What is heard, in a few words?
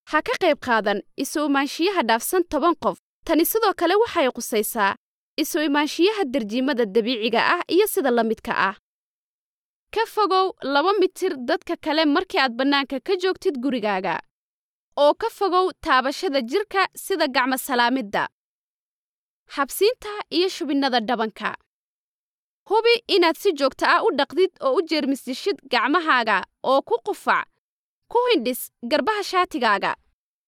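Somali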